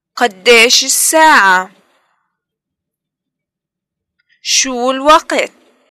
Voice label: time what time it is, arabic levantine